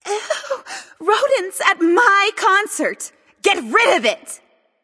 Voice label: taunt directed light; ratatoskr; smite; voicepack; hel

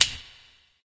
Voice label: vox click; smite; camazotz

